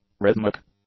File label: "rhythmic", English; adjectives